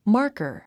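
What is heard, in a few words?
"marker", English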